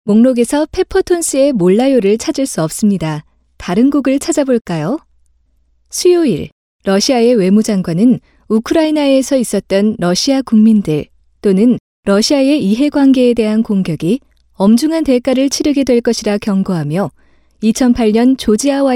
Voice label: Korean Female